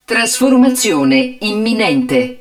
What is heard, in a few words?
HUD TRANSFORMATION IMMINENT, Italian; XBOX; BattleEngineAquila